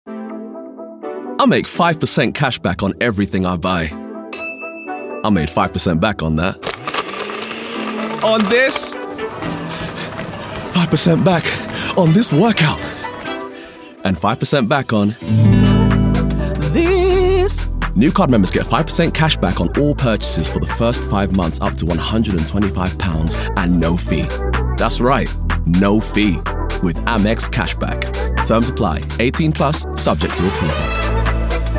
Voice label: Ad; Amex

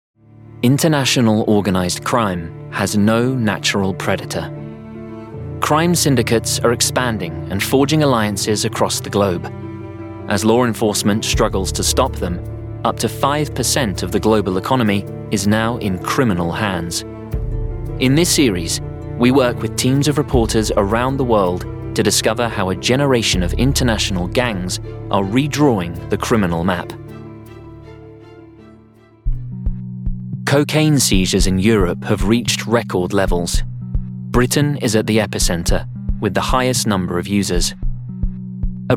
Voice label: doco

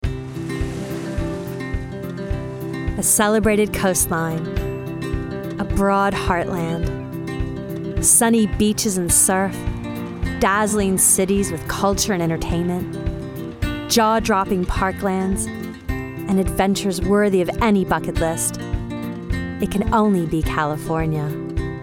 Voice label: Reel Commercial; California

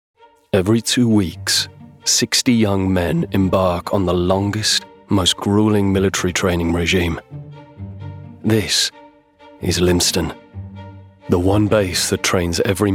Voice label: doco

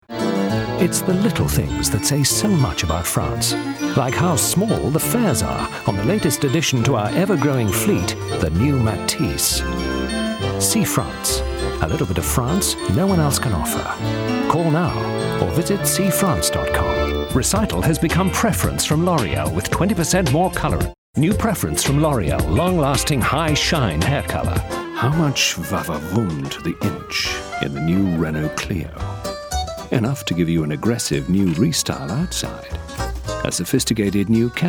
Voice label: Commercial Reel

